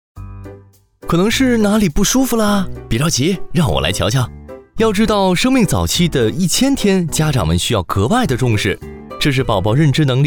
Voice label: 男 中文 活泼鬼马

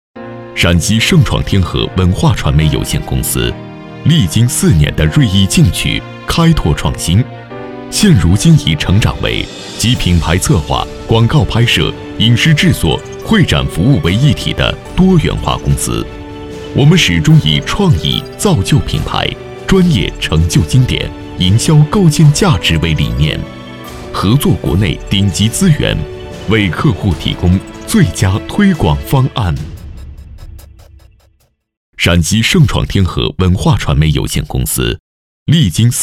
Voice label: 男 专题; 晟创天和传媒

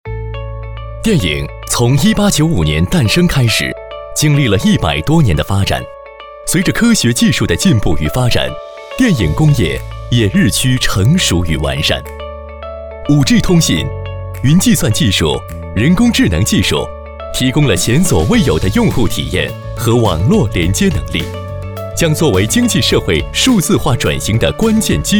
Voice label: 男 中文 科技时尚; 华为

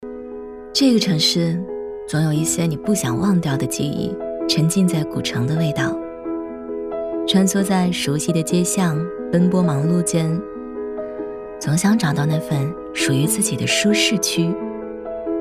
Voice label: 女 独白广告 素人舒适个性时尚优雅; 奔驰形象片

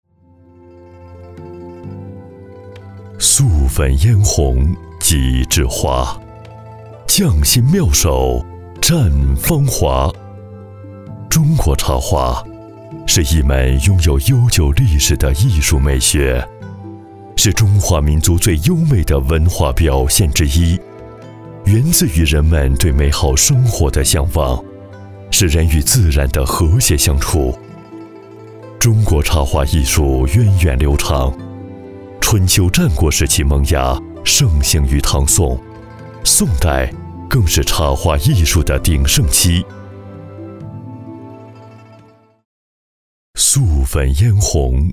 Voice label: 男 纪录片; 中国插花

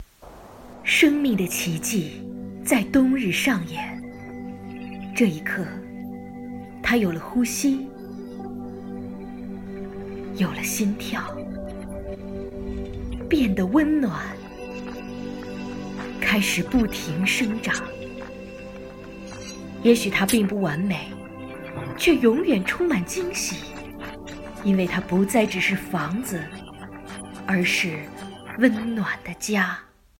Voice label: 广告; 宜家宜居

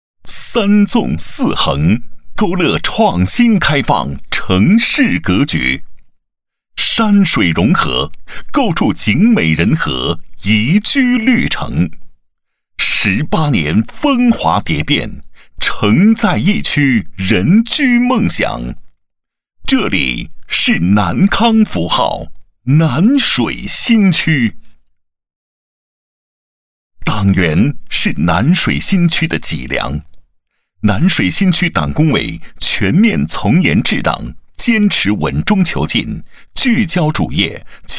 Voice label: 宣传片; 南山党建

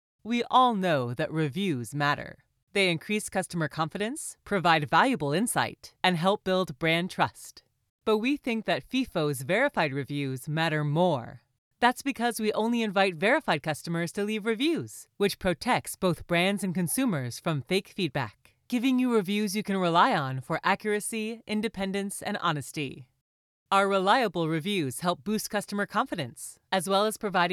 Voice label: 成熟稳重 宣传

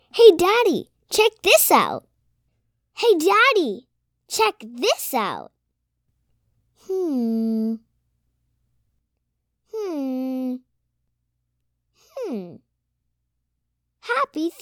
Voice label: 外籍女童声 日常对话

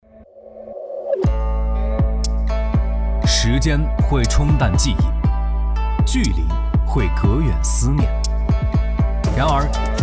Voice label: 男 个性 态度; 探享一城一味; 海尔

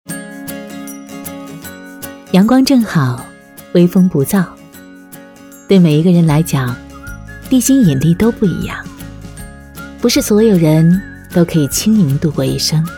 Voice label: 女 自述 轻松时尚

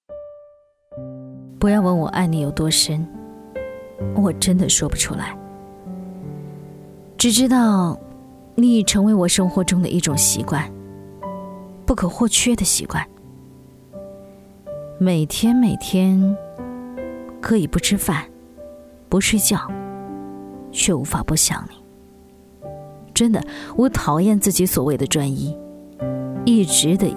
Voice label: 独白

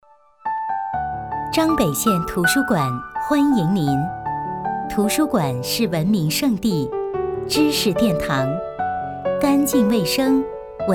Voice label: 女 宣传 图书馆; 张北县图书馆

